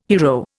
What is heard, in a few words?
"hiero", Indian